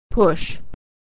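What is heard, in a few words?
"push", American English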